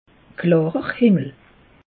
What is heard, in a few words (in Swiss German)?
"klarer", alsace; meteo